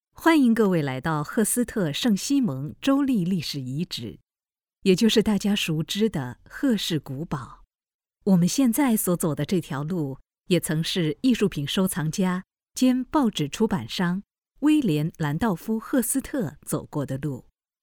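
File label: Mandarin Chinese, female, voice over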